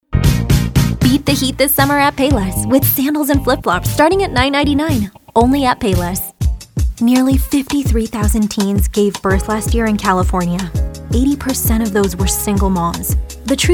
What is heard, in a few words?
american